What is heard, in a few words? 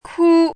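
"kū", Mandarin Chinese; 挎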